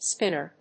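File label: "spinor", English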